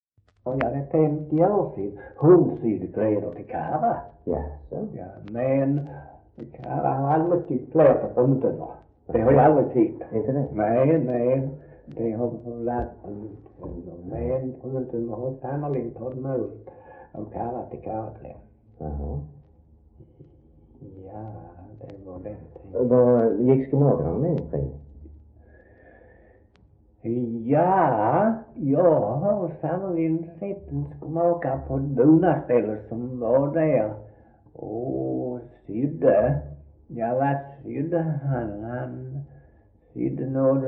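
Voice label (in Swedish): Svensköp Skåne, Svenska sagor dialekter; Folkminnen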